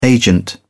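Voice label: "agent", British English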